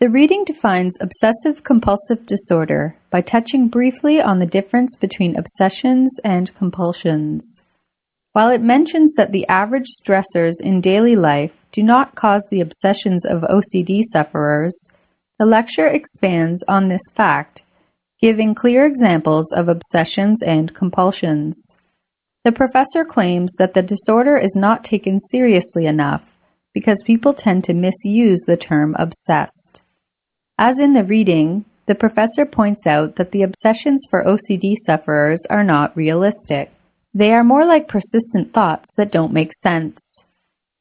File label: toefl speaking response; englishclub; toefl speaking 4 response